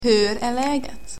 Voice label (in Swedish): "hur ar laget", swedish, Greetings